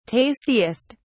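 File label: "tastiest", British English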